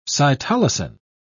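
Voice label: "cytolysin", British English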